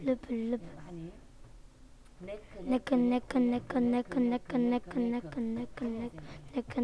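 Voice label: "neck", spoken word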